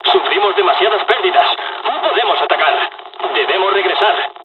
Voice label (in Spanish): dialog, dialogs spanish; War Thunder